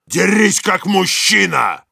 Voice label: melee dare, ru; Heavy